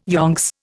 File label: "yonks", Indian